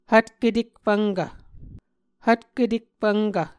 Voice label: "hvtketekfvnkv", Muscogee